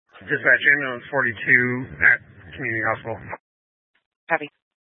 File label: Fire Dispatch, scanner